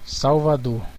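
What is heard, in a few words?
"salvador", Brazilian Portuguese; gcompris; geography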